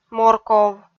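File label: "морков" Komi, female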